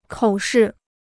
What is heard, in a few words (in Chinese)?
"口试", Chinese